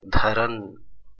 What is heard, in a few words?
"dharan", Hindi